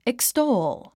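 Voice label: "extol", English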